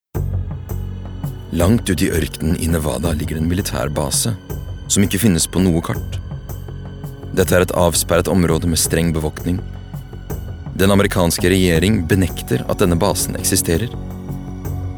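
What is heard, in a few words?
Norwegian documentary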